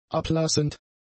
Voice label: "ablassend", German; adjectives